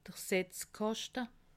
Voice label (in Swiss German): "setzkaschte", alsace